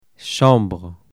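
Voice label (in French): "chambre", French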